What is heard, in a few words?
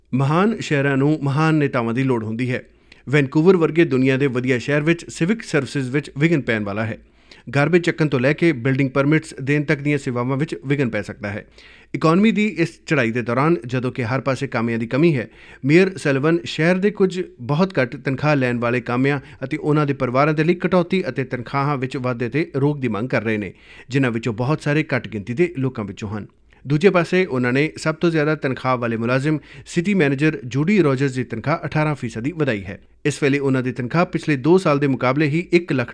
Punjabi radio ad